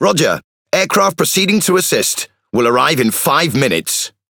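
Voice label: MESSAGE DEFENCE AREA, British English; PVE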